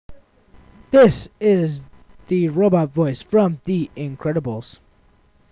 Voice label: robot voice